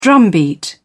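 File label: "drumbeat", British English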